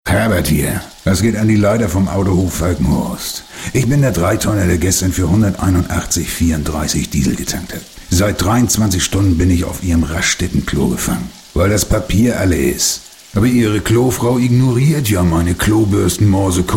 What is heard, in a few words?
male german comercial; ideasonora